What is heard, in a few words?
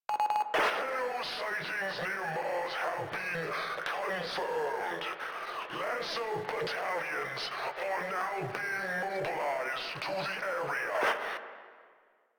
Announcements, Radio Interceptions; Grineer Announcer